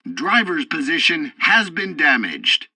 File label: tutorial tank arcade first target driver hit, english; War Thunder